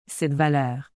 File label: "C’est de valeur.", Québécois French